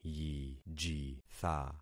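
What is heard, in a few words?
"yijitha", concatenated syllables; Affixing experiment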